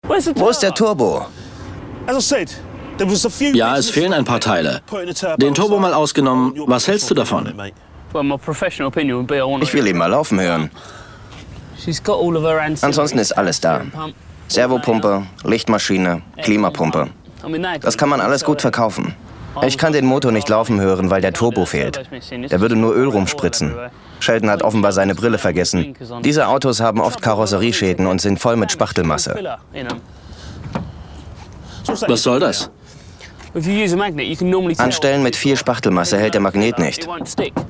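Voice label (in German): Kommentar Cool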